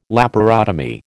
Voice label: "laparotomy", American

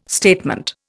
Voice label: "statement", Indian

